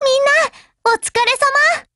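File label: operation over, Japanese; GF Alarm; HK45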